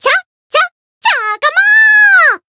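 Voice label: win, Japanese; myungwha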